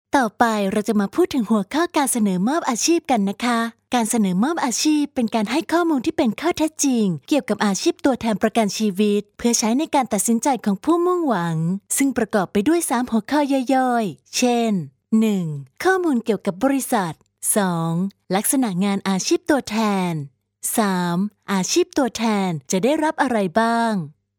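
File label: Thai, Thailand, female; thai voice over talent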